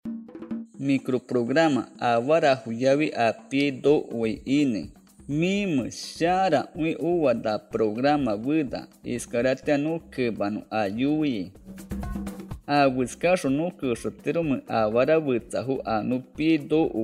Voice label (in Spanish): yaminahua entrega de bienes y alimentos 1 voz, spot de radio; medidas de prevencion